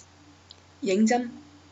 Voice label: name cantonese